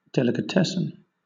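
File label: "delicatessen", British English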